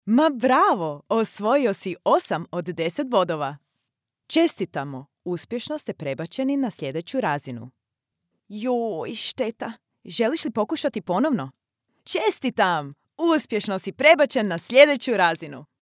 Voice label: game, croatian